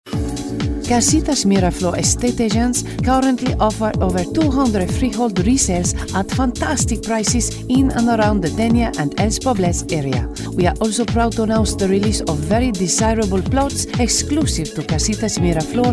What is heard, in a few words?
spanish accent